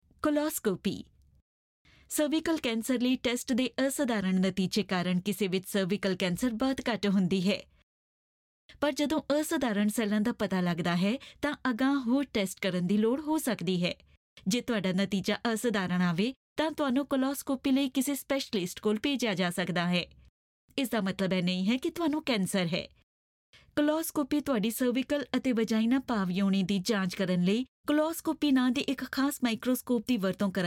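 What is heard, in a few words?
Corporate, Punjabi; GoLocalise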